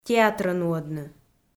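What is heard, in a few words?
"театрӧ нуӧдны", Komi, female; audio dict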